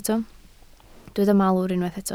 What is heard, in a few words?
"malwr", Welsh